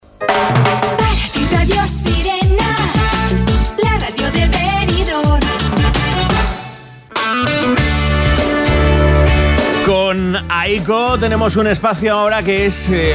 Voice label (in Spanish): entrevista radio; entrevista bodalos radio sirena COPE